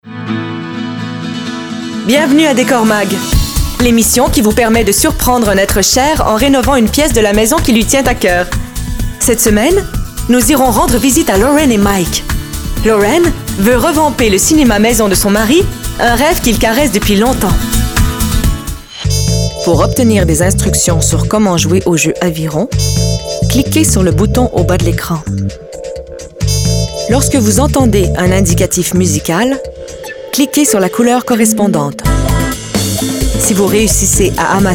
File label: narration